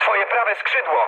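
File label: Polish right wing mood medium; War Thunder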